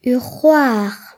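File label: "sister", Breton; gcompris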